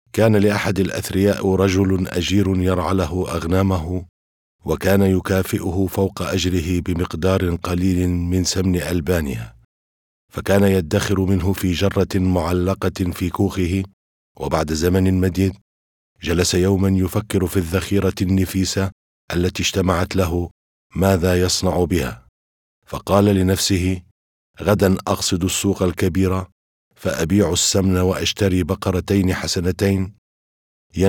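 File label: arabic male narration; voice demos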